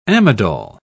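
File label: "amidol", English, British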